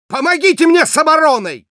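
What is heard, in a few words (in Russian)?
Spy help me defend, Russian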